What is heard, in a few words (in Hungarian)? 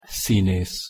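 "szinesz", Hungarian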